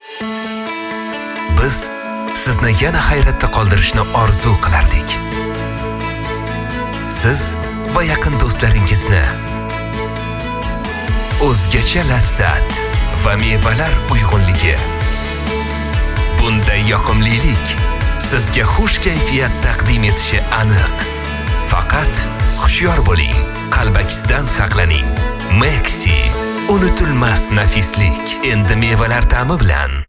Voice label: Uzbek voice over